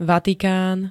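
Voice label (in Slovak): "Vatikán", Slovak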